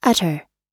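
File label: "utter", US English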